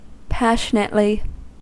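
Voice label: "passionately", US English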